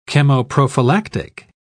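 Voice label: "chemoprophylactic", British English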